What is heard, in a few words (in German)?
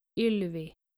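"Ylvi", skandinavische Mädchennamen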